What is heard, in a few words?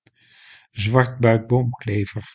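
"zwartbuikboomklever", Dutch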